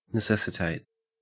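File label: "necessitate", English, Australian accent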